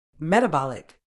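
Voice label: "metabolic", British English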